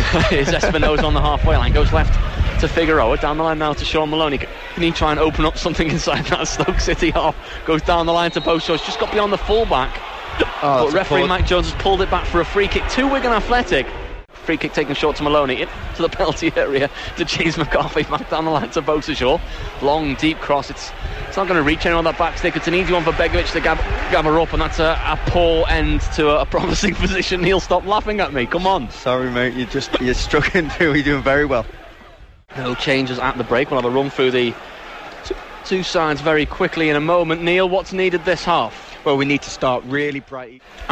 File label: commentator suffers hiccups; wish fm